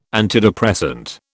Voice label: "antidepressant", British